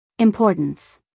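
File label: "importance", American English, female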